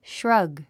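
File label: "shrug", English word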